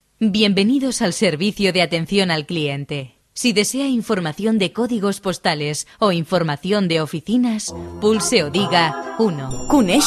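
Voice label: Spanish, female, various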